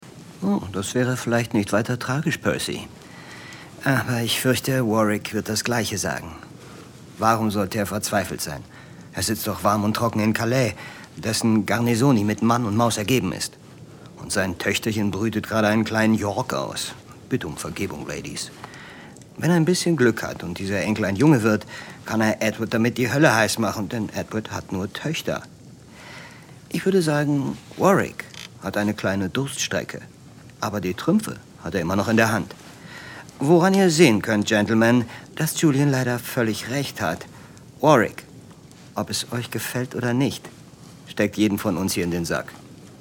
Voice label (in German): Hörspiel